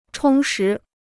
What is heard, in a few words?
"充实", Chinese